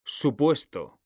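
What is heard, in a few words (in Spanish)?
"supuesto", male; mekanta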